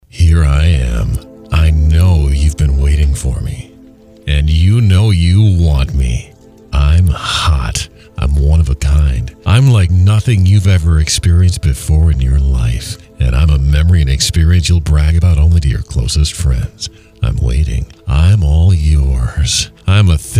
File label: Commercial; WKQS; Jets Pizza Marquette